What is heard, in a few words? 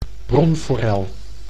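"bronforel", Dutch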